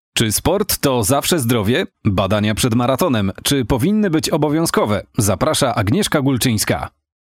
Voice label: male polish voice over talent upbeat